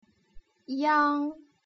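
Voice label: "iang" Mandarin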